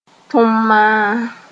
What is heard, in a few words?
"tõmmaa", Mooré